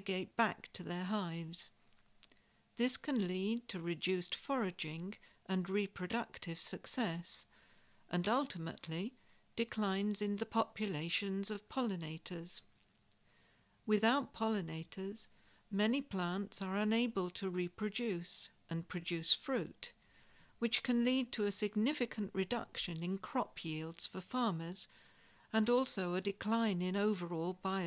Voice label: Neonicotinoids cause problems for bees insects and birds slower